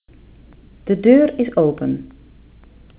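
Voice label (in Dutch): De deur is open, Dutch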